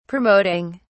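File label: "promoting", British English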